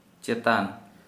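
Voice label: Santali male on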